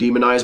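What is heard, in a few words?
"demonize", American English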